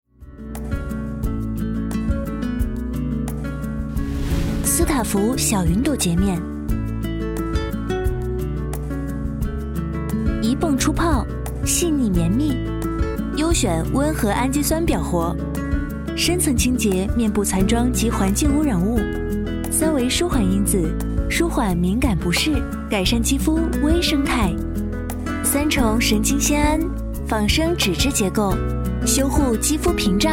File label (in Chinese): 女 高端广告; 丝塔芙小云朵洁面